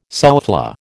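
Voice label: "soufflé", American English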